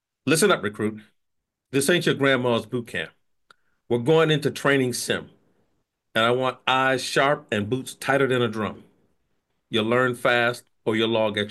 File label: character animation; Character Voiceover